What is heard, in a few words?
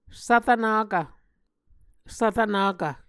"svrvnakv", Muscogee